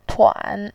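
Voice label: "tuan", Mandarin Chinese, third tone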